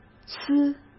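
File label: "丝", Mandarin Chinese; hsk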